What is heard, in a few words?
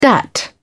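"dat", English, British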